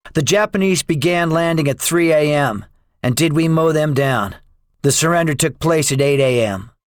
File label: debriefing, english; War Thunder